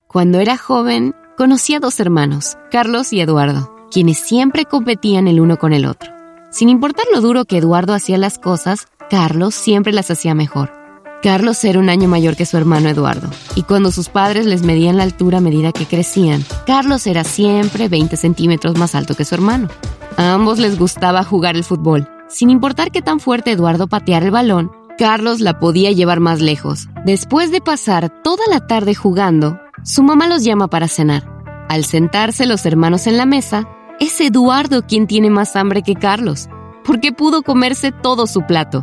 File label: US Spanish Narration